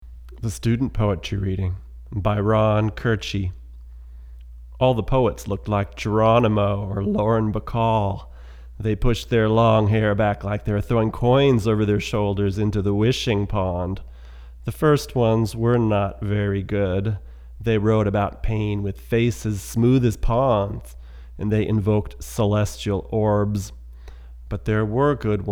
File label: VO English